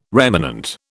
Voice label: "remanent", British

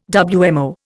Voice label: "WMO", Indian

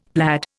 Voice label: "plaid", Indian; Dictionary

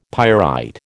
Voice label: "pyrite", American

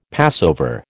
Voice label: "Passover", American

